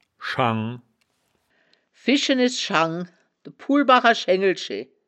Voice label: "schang", wittlicher woerterbuch; das wittlicher woerterbuch; woerterbuch vertonung

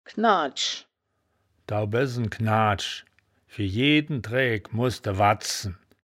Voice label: "knaadsch", wittlich; das wittlicher woerterbuch; woerterbuch vertonung

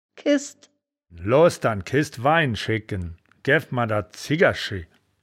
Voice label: "kehsd", wittlich

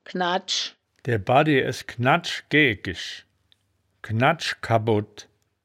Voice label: "knaddsch", wittlich; das wittlicher woerterbuch; woerterbuch vertonung

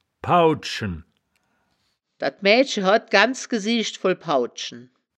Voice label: "paud schen", wittlich

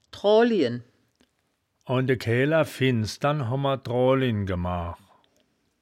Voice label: "draolljen", wittlicher

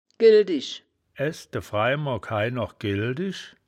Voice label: "gildisch", wittlich

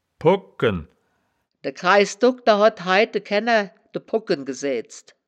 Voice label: "pogken", wittlicher; das wittlicher woerterbuch; woerterbuch vertonung

